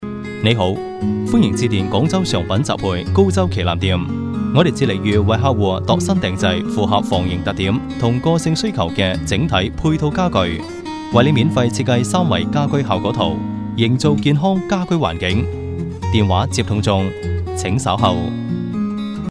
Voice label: locutor chino cantones cantonese voiceover

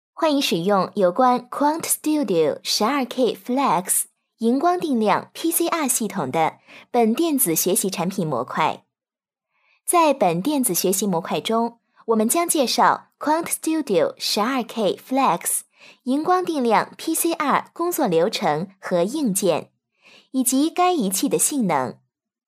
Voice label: chinese voiceover locutora china mandarina

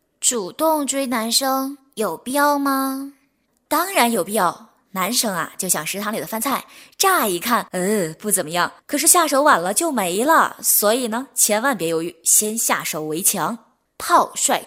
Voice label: locutora china chinese mandarin voiceover

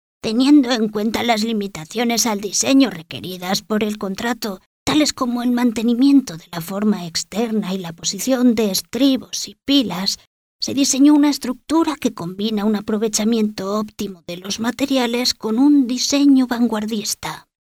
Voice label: abuela locutora spanish voiceover; voces ancianos

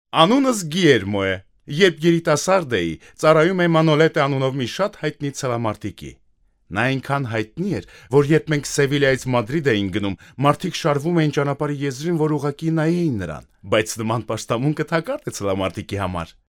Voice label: armenian voiceover locutor armenia

